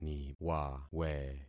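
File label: "niwawe", concatenated syllables; Affixing experiment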